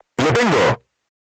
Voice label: voice message direct hit, crew tanks spanish

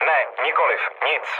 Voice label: chat, speech Czech; War Thunder

